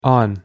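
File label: "on", English pronunciation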